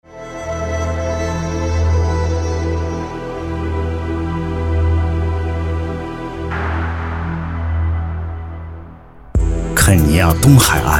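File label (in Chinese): 记录片花样音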